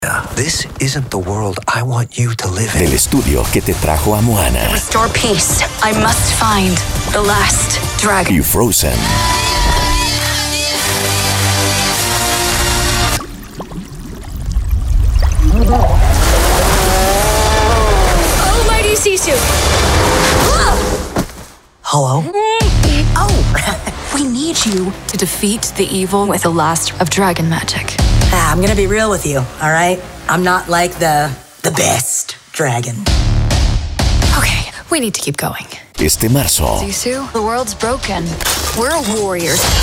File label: Trailer Spanish